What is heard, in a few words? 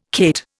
"cate", Indian